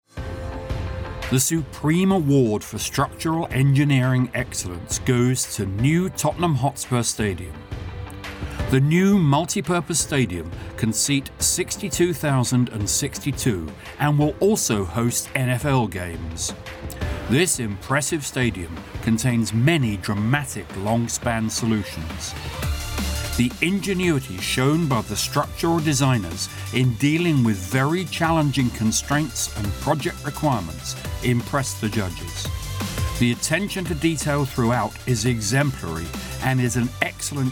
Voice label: Narrative